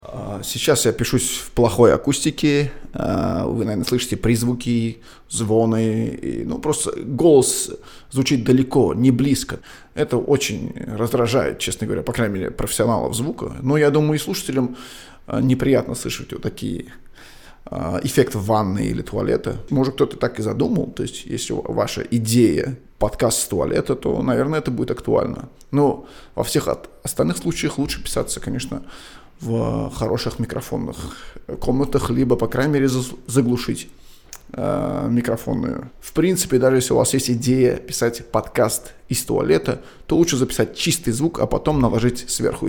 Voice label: mic badroom